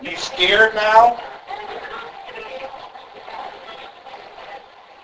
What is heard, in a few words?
scared